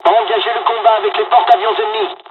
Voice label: bombing, dialogs french; midway us; War Thunder